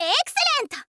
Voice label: "excellent", girl; voice